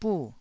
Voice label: "buh4", taiwanese Syllables

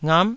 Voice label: "ngap9", taiwanese syllables

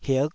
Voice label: "hik5", Taiwanese syllable

